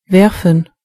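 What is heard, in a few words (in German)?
"throw", German; gcompris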